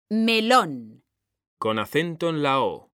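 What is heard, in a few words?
"melón", Spanish, female; mekanta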